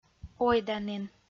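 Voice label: "ойданін", Komi, female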